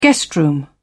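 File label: "guest room", British English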